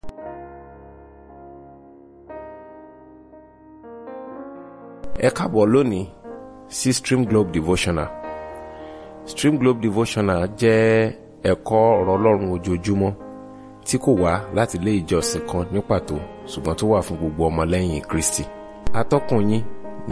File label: Devotional Audio Yoruba